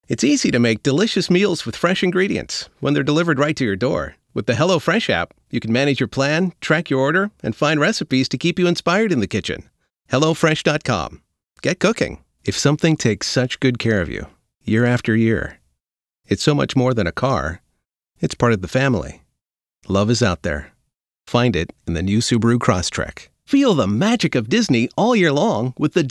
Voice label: Commercial dry

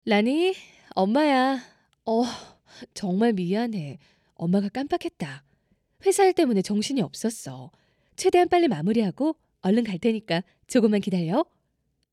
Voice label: character sample

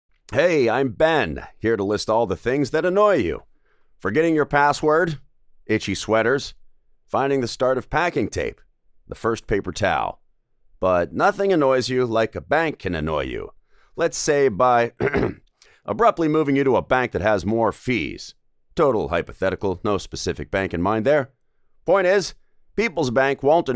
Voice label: comedic male voice over; people's bank

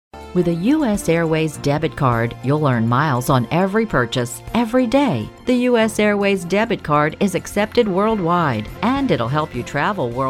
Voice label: Messages On Hold

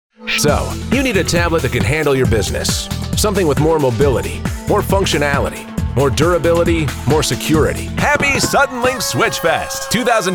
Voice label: Commercials

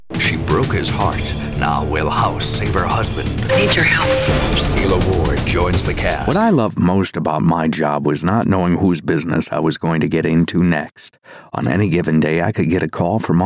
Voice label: VO Sampler

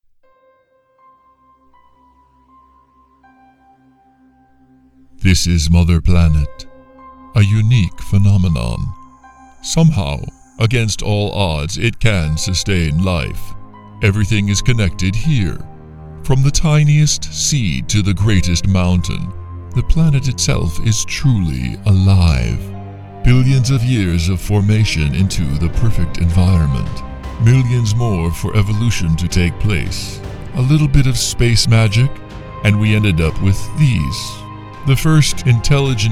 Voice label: GOD like VOICE

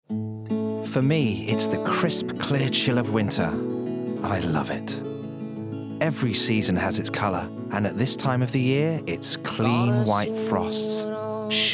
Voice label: Warm sympathetic natural

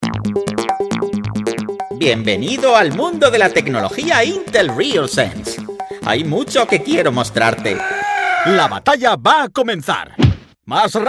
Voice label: generic characters games

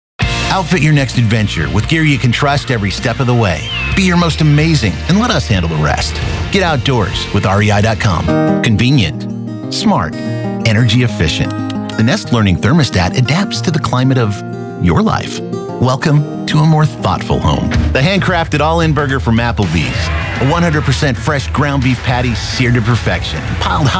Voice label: Commercial

